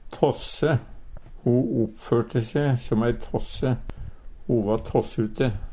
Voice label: tasse, Numedalsmål; Substantiv hokjønn

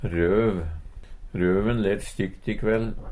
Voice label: rov, Numedalsmål

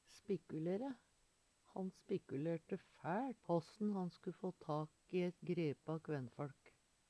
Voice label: spikkulere, Numedalsmål

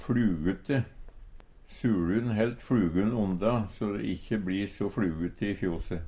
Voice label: "fLugute", Numedalsmål; SuLun helt fLugun onda so de ikkje bLi so fLugute i fjose.

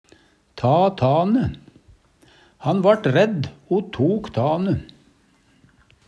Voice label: ta tane, Numedalsmål; leggja på sprang; Uttrykk; Han vart redd o tok tane.

